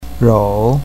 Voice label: "rou3", pinyin